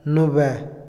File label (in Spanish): inmediatamente, Otomí, hombre; Tiempo; Vocabulary; INALI